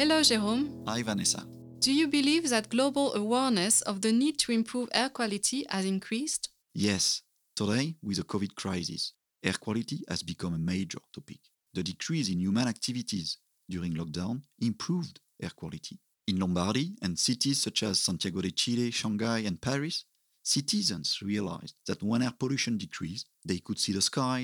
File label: podcast